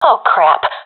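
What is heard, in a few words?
scared, professional female; vo; Counter Strike Model Pack; CS:GO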